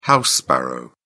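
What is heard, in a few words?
"house sparrow", British English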